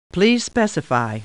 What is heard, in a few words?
Star Trek Computer Voice; StarTrekLCAR